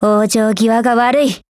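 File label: skill, Japanese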